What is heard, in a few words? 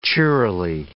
"cheerily", English